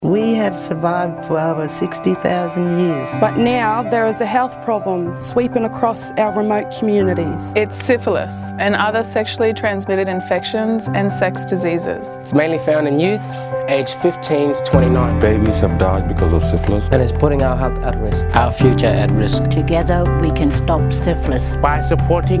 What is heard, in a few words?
Ad get tested in English; SAHMRI